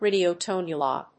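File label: "radiotelephone", English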